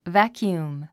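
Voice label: "vacuum", English word; 発音 vǽkjuəm バキューム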